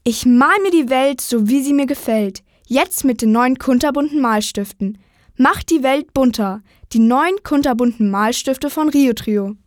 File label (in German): maedchen